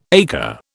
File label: "acre", British